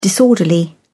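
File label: "disorderly", English, British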